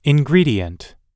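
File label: "ingredient", English; oxford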